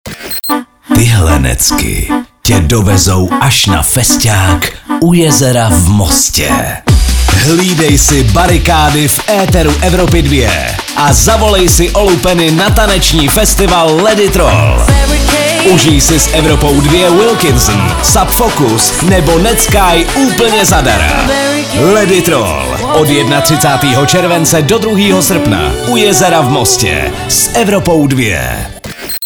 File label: liner; Vyhrajte své vstupenky na drum and bassové prázdniny u Jezera Most!; Soutěžte na Evropě 2